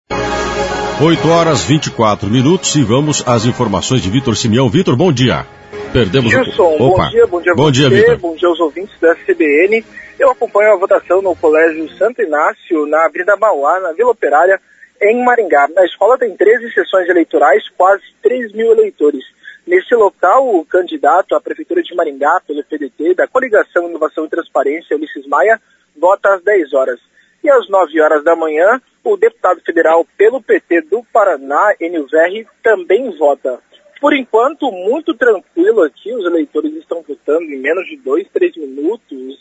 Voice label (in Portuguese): ao vivo